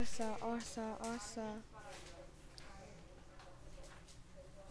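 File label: "also", spoken word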